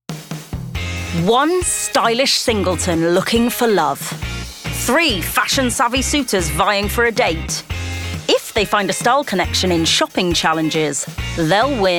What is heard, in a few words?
Documentary, Originally from the West Country; voice is naturally conversational and engaging